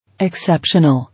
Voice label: "Exceptional", English